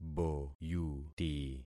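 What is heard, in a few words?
"boyuti", concatenated syllables; Affixing experiment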